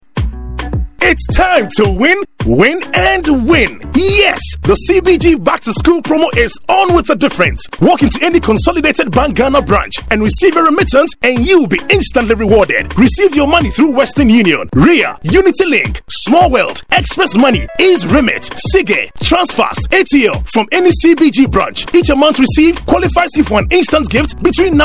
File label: RADIO ENG